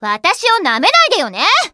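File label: victory, Japanese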